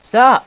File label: "stop", voice